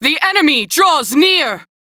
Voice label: Crew Gun Spot Fighter, Female; Dialog; en; Warframe; Railjack Crew; New Loka